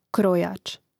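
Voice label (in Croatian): "krojac", Croatian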